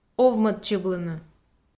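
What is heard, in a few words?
"овмӧдчывлыны" Komi-Zyrian, female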